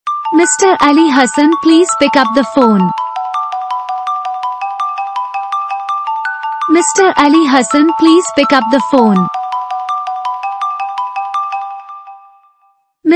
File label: please pickup the phone ringtone